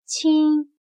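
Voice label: "亲" Chinese, qīn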